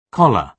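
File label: "collar" British English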